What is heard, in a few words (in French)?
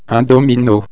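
"domino", French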